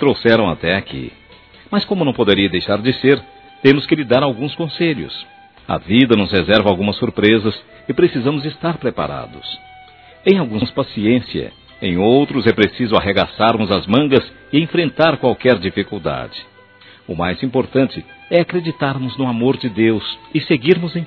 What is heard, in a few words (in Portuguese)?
Formatura Filha Plural Masculina, Telemensagens; Telemensagens 2017; Formaturas